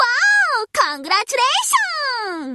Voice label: wow congratulations japanese